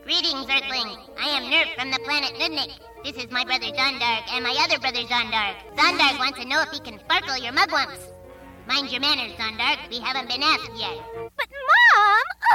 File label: Animation